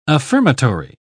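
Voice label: "affirmatory", English, uk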